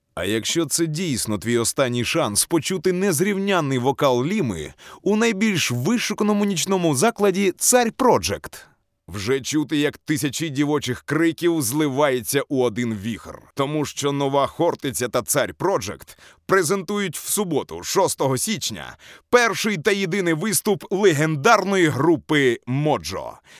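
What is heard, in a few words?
Ukrainian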